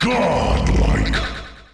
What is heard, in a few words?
"godlike", male